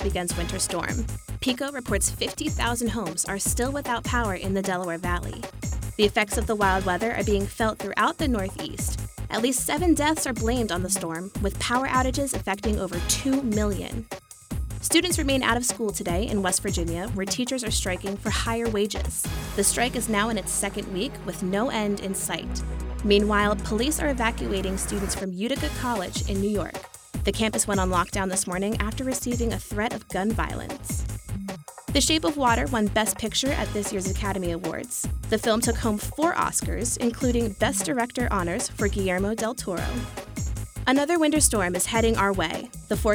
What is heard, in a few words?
News Script; An informational video about the Drop and Go program offered by University Student Housing